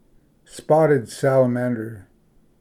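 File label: "spotted salamander", English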